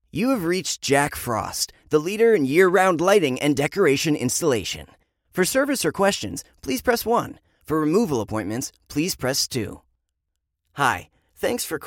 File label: IVR phone tree